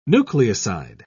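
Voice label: "nucleoside", British English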